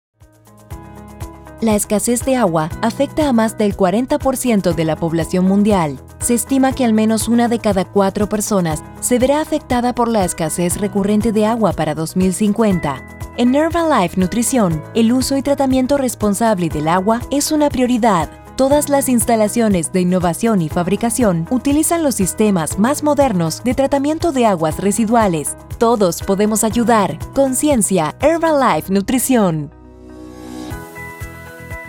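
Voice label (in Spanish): SPOT voz institucional redes sociales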